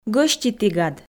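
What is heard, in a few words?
"гӧститігад", Komi, female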